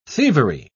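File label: "thievery", British English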